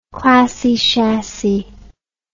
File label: "classy chassy", English